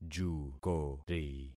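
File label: "jukori", concatenated syllables; Affixing experiment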